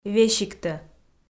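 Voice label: "весигтӧ", Komi, female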